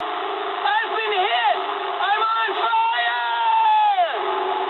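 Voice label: English on fire mood high; War Thunder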